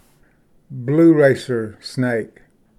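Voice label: "blue racer", English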